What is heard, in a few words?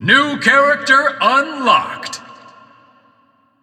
announcer new character; Crypt of the NecroDancer